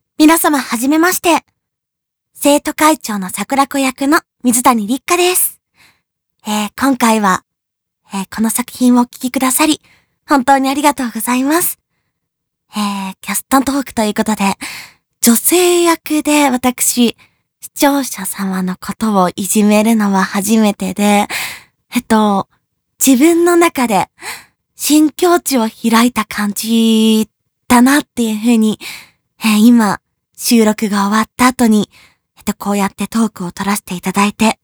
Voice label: フリートーク

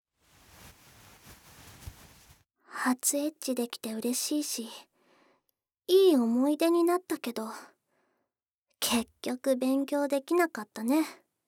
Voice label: ピロートーク

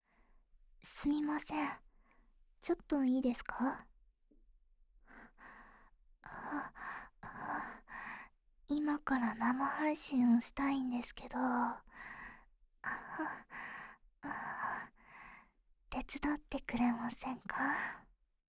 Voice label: モノラル; 原作CG集忠実版音声; 公園露出編; 後日談

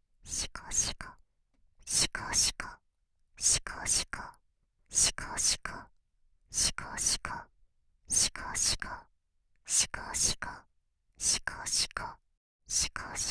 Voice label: 小悪魔 しこしこ ボイス 右

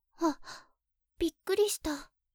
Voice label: わ。びっくりした, 天然; ハイグレボイス集

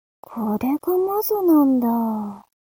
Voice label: mob 女子 台詞

